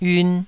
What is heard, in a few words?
"yiun2", taiwanese Syllables